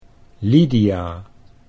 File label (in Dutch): "Lydia", Dutch